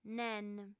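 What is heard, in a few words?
"nen" Sardinian, female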